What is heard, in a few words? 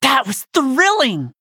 Warrior Nonbinary Night Elf Wow